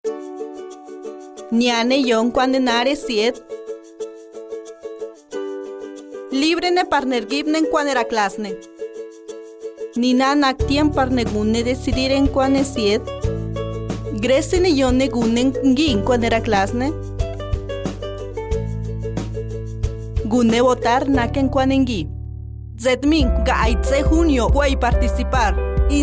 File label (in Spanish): spot voto zapoteco